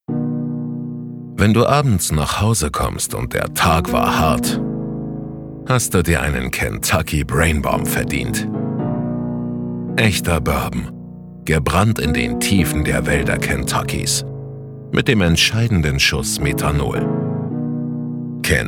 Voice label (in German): werbung